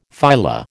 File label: "phyla", American